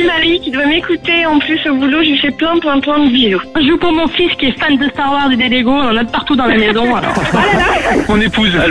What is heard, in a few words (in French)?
promo image mes amis ma radio